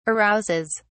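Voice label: "arouses", British English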